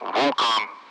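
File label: Speech Sound Russian Common Allied Flight; AirDefence; Vulcan; DCS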